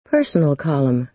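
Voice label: "personal column", American English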